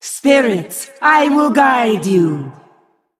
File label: Female Val Kyr Play